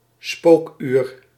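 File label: "spookuur", Dutch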